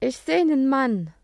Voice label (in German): "grosser Korb", Tonakzente